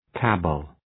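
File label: "cabal", English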